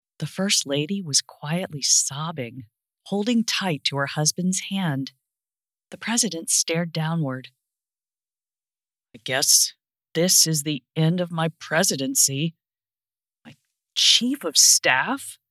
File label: political thriller, 3rd, multiple characters; Oval Office murder reveal